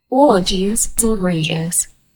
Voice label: female saying what is goi; human voice